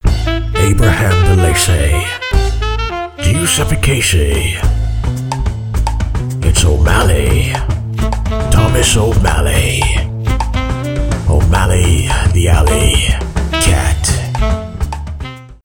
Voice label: commercial